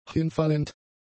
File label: "hinfallend", German; adjectives